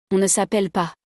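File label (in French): "on ne s appelle pas", French; conjugaison; le verbe s'appeler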